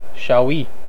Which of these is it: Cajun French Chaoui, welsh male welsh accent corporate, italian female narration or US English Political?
Cajun French Chaoui